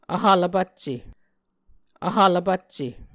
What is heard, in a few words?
"vhv lvpakce", Muscogee